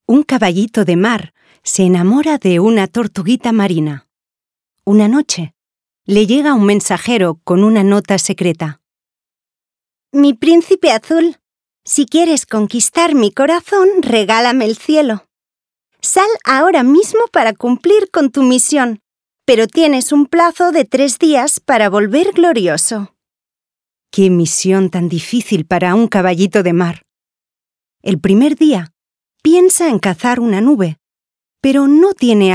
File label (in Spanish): Conte, ESP, Voz cálida y cercana, de timbre medio, envolvente y con carácter; voice talent